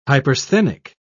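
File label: "hypersthenic", British English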